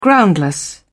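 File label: "groundless", British English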